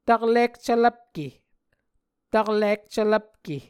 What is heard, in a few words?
"taklik cvlvpke", Muscogee